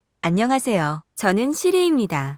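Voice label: Korean, Korea; iOS 26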